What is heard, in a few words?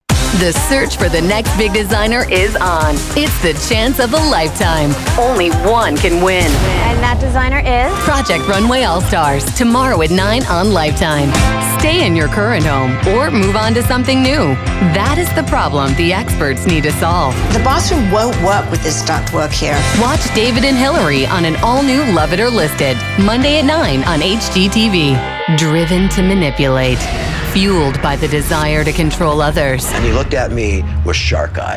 women promos